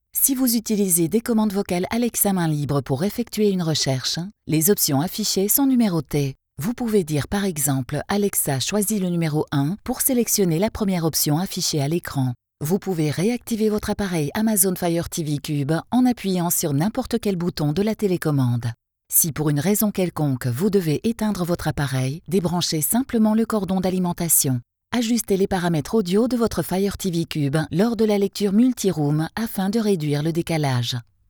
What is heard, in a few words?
french e learning